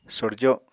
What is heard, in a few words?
"sorjo", Apatani